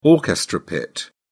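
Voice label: "orchestra pit", English, British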